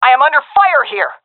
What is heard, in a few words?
taking fire, vo fem; agents; Source Model Packs; Counter Strike Model Pack; CS2